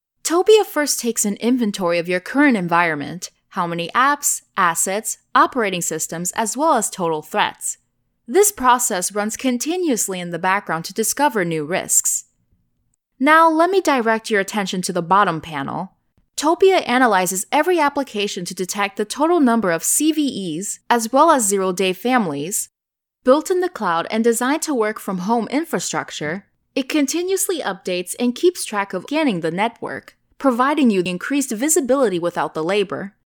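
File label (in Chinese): 外籍女英_外语_英式英语_专题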